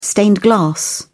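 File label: "stained glass", British English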